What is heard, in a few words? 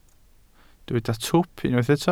"twp", Welsh